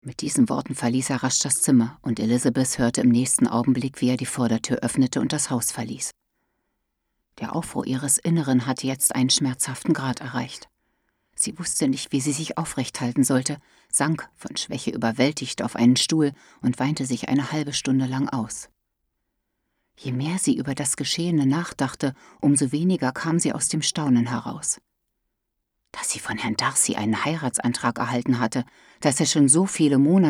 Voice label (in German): Hoerbuch Beispiel